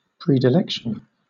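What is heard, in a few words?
"predilection", British English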